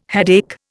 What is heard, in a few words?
"headache", Indian